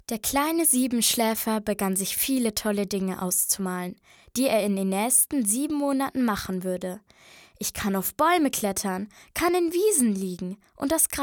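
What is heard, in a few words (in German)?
Mädchen; Der Siebenschläfer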